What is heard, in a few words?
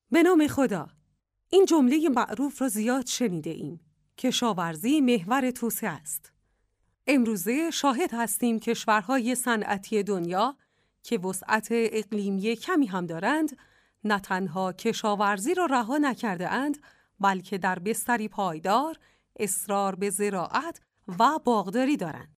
female farsi voice over narrative